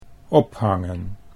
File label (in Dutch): "ophangen", Dutch